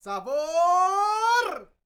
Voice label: MEXICO VOICE, MEXICAN; ILIO; Vocal Planet VOL-5